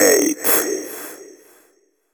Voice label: techno male 140; Vocals

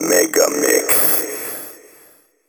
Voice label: techno male 140; Vocals

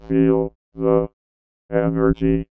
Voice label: VOICEFX ELCTR 140; Techno2

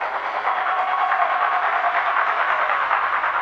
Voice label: TECHNO VOCALS 140; Techno2

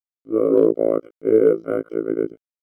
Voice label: VOICEFX ELCTR 140; Techno2

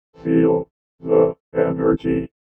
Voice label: VOICEFX ELCTR 140; Techno2

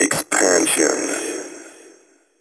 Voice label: techno male 140; Vocals

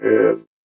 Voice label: VOICEFX ELCTR 140; Techno2

